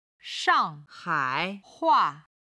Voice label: "shang4 hai3 hua4", Chinese

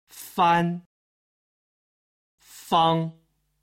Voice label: "fan" "fang", Chinese

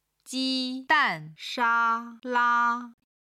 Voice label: "ji1dan4sha1la1", chinese

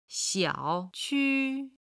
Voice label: "xiao3 qu1", chinese

